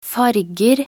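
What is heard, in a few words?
"farger", Norwegian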